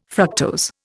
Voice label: "fructose", Indian